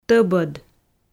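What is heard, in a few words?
"тӧбӧд", Komi, female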